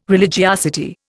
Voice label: "religiosity", Indian

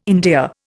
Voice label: "endear", Indian

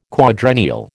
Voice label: "quadrennial", American

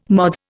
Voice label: "Mods", Indian

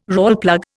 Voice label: "Rawlplug", Indian

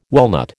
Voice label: "walnut", American

